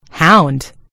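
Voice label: "hound", American English; gcompris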